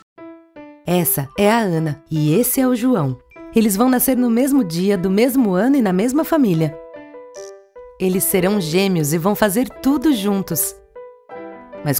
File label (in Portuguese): Coloquial